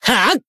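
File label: Voice attack, Japanese; curio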